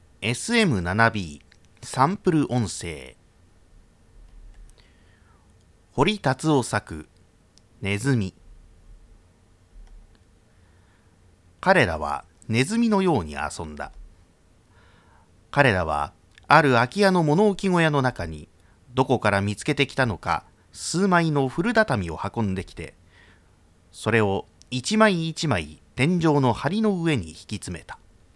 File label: sm7b